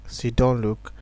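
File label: "siddon look", Nigerian Pidgin, male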